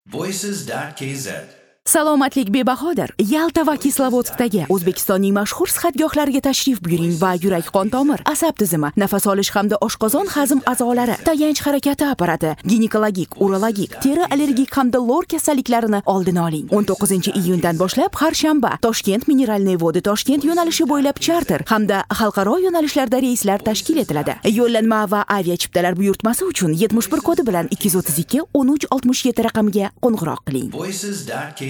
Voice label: Dictor Uz uzb

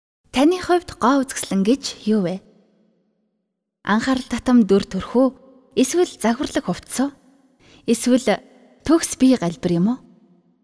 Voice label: 32 years

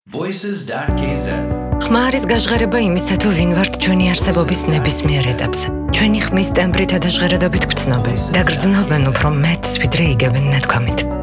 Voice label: woman

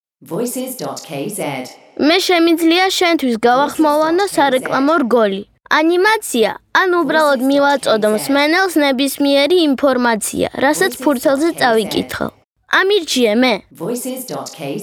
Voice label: kid boy

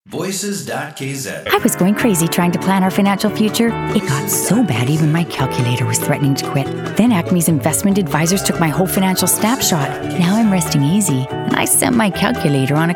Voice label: en

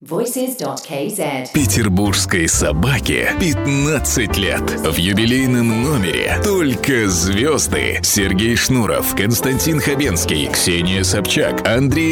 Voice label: ru

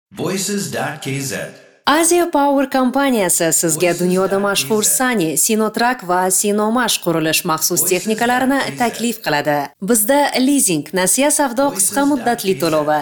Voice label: uz

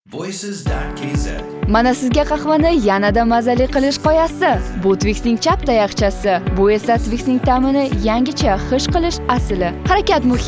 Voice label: uz

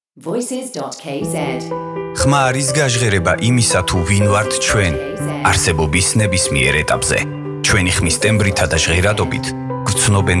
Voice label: man

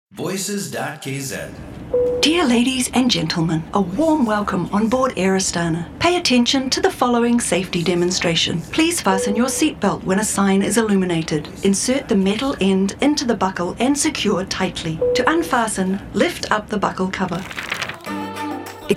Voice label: en

